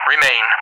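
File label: "remain", English speech; DCS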